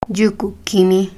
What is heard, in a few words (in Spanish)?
Cerro de la estrella, Mixteco